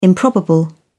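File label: "improbable", British English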